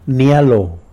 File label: "nilo", tlacolula; jealous